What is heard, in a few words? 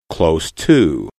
"close to", english